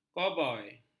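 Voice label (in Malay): "koboi", Malay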